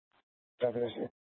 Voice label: Mesa PD Central Dispatch

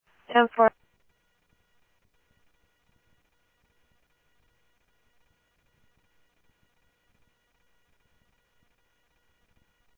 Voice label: Security, scanner audio

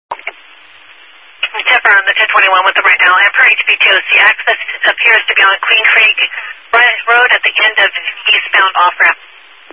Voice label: Highway Patrol, scanner; DPS EAST; Dist 18 Phoenix Metro South Area

